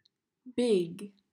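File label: "Big", English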